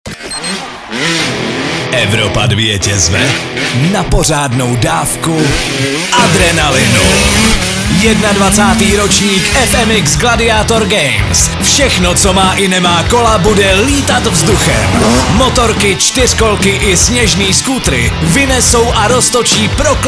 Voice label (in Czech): promo soutez; FMX GLADIATOR GAMES se blíží!; Poslouchej Evropu 2 a soutěž s námi